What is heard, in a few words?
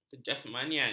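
"kejasmanian", Malay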